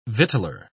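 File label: "victualler", British English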